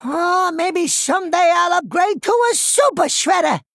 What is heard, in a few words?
Male Goblin Idle; Whirleygig; Xyrella Mission4